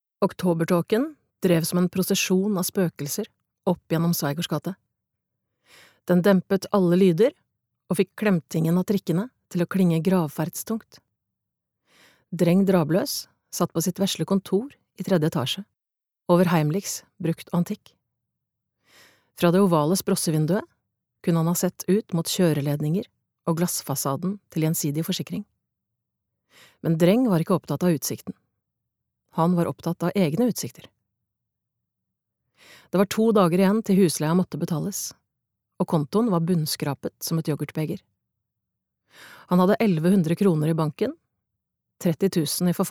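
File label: Norwegian audiobook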